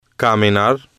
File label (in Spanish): "caminar", spanish